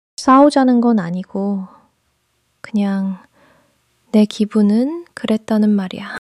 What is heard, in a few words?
neutral